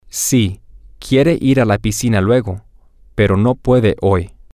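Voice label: yes she wants to go to the pool later, Spanish, male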